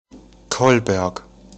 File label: Kolberg German pronounciation; Колобжег; немецкое название Ко́льберг